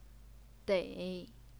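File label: "dei", third tone, Chinese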